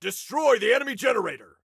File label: Attack Generator, Soldier Male